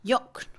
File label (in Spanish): voz, Mixe, Mujer; Habla; INALI